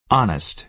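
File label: "honest", American English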